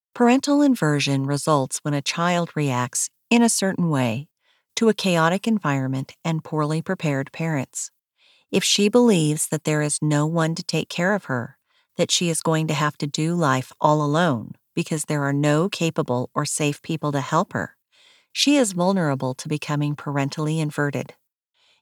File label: Audiobook